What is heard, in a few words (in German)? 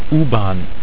"ubahn", German